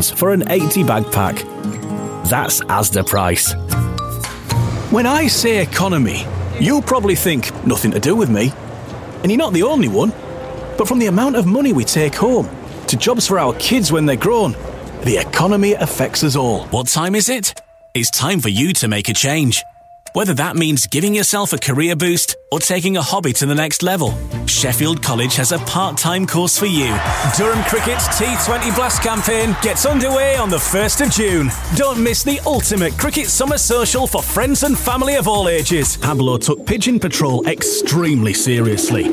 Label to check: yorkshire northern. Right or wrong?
Right